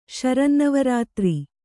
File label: "ಶರನ್ನವರಾತ್ರಿ", Kannada